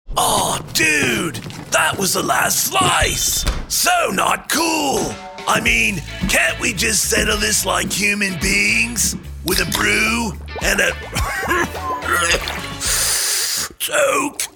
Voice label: Stoned Surfer, Commercial Voice Over